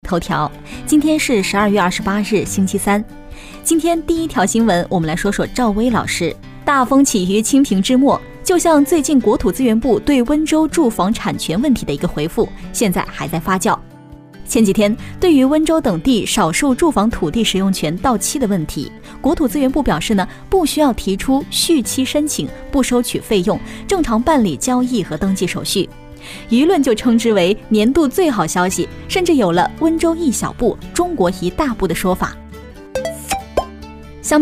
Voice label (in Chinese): 新闻播报 样音